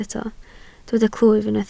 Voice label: "clwyf", Welsh